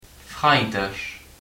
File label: friday, luxembourgish